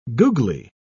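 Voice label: "googly", British English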